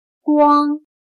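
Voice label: "光" Chinese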